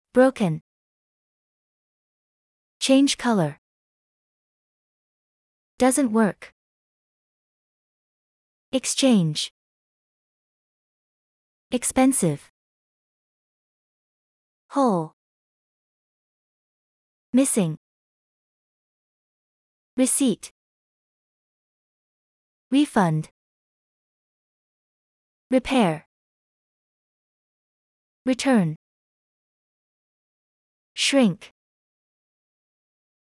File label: usa; shopping problems